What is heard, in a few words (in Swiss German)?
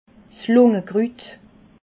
"lungekrut", alsace